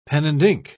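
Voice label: "pen-and-ink", English, British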